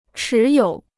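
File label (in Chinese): "持有" Chinese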